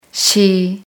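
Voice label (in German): "Uhr", German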